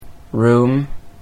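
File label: room, Dutch Pronunciation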